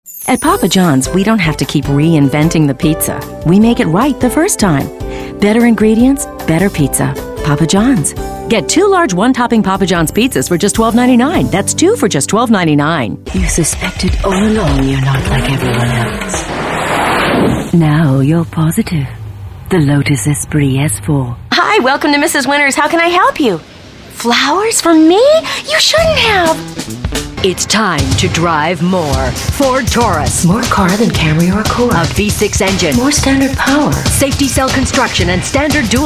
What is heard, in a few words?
commercial